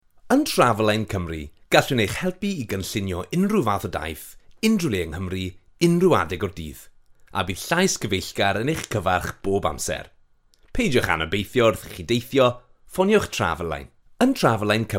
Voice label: Welsh; Welsh, Male, 20s-30s